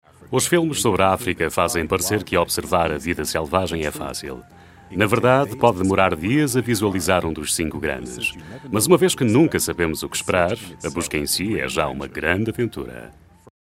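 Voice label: Portuguese documentary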